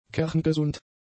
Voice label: "kerngesund", German; adjectives

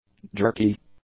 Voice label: "jerky", english; adjectives

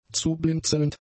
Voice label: "zublinzelnd", German; adjectives

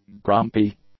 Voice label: "grumpy", english; adjectives

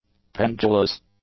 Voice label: "pendulous", English; adjectives

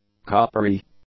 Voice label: "coppery", English; adjectives

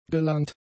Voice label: "gelangt", German; adjectives

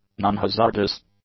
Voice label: "nonhazardous", english; adjectives

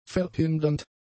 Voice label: "verhindernd", German; adjectives

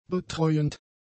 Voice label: "betreuend", German; adjectives

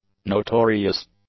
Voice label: "notorious", english; adjectives

